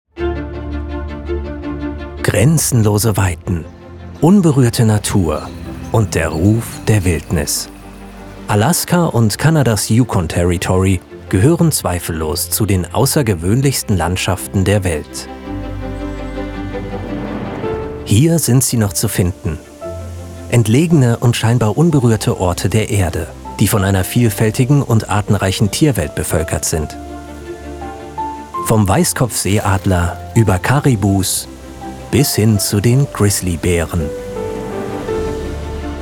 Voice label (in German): doku, sprecher; alaska und yukon